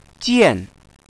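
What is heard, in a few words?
"jian", Mandarin Chinese, fourth tone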